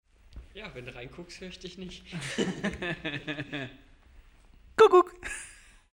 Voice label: Binaural Voice